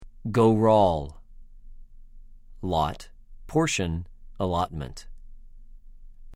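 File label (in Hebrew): "גּוֹרָל", Hebrew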